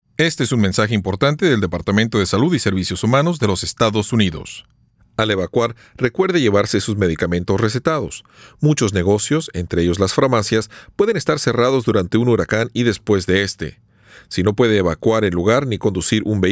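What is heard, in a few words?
Spanish PSA; CDC; Preparing Prescription Meds; disasters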